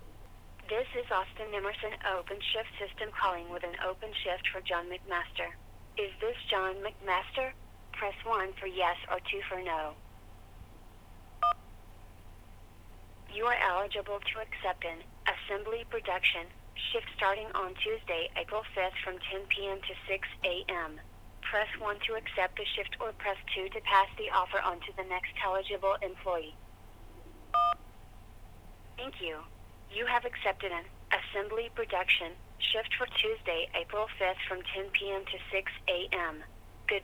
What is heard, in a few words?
IVR; OpenShift